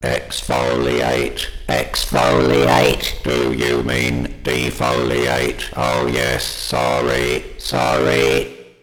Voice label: exfoliate, daleks